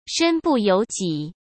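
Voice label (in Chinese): "身不由己", Mandarin; chinese-flashcards; hsk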